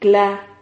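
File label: "cla", Spanish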